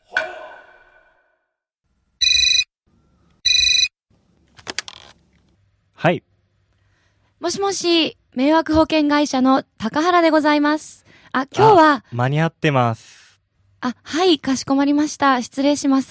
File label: dialog; JapanesePod101; Beginner Season 1